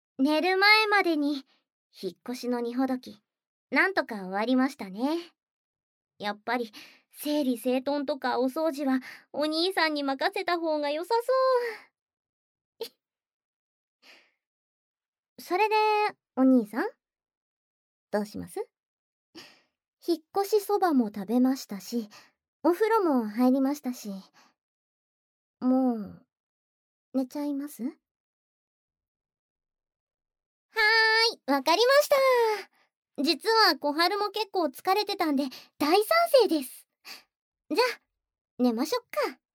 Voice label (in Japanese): 睡眠導入, ASMR; JKアシスタント; 了解です